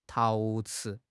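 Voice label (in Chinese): "陶瓷", Chinese; HSK6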